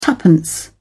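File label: "twopence", English, British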